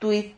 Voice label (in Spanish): "tuid", Silabas